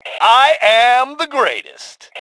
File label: Taunt Brag, Male; T2 Male 01